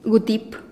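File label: "gutip", Teotitlán del Valle Zapotec; wasp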